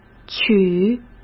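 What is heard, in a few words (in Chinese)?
"取", Mandarin Chinese; hsk; chinese flashcards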